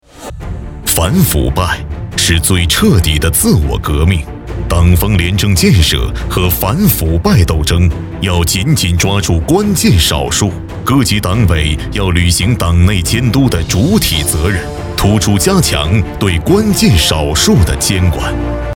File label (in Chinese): 专题 反腐 严肃